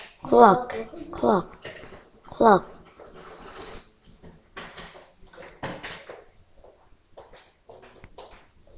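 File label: "clock", spoken word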